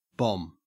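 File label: "bomb", Australian English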